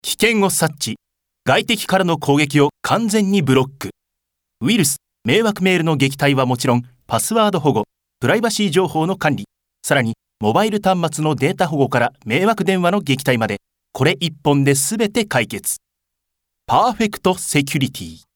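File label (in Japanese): narration, ボイスサンプル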